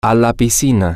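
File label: Spanish, to the pool, male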